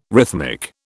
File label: "rhythmic", British